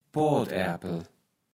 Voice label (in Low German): "Poatärpel", Platt